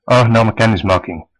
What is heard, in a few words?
meet, west flemish